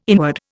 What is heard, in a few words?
"inward", Indian